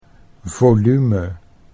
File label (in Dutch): "volume", Dutch